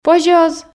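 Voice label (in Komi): позьӧ оз ..., Komi, female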